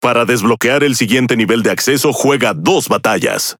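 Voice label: Spanish Speech; Access Level Unlock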